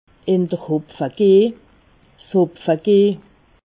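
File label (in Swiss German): hopfe gehn, Alsatian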